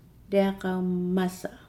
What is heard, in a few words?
"dry wood", Nukuoro